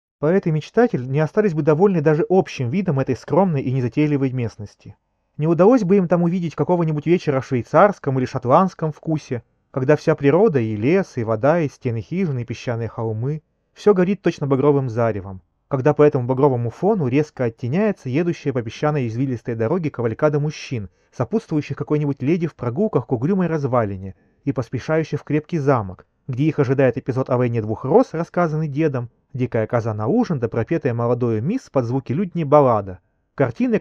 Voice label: Mic; Запись с микрофона гарнитуры